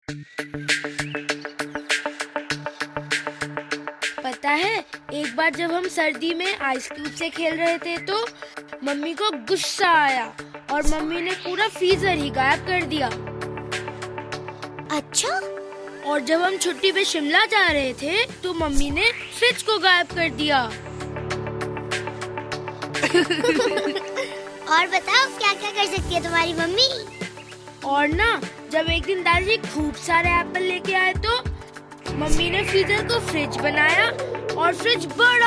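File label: child hindi; samsung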